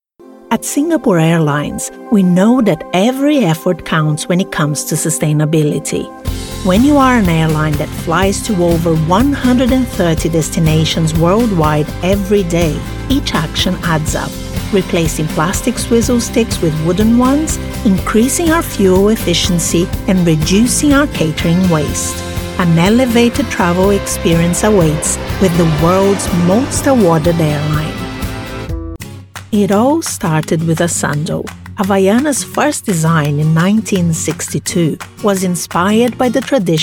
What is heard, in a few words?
EXPLAINER CORPORATE INTERNATIONAL ENGLISH